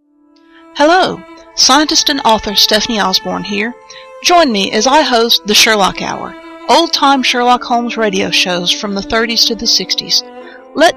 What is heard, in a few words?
commercial; The Sherlock Hour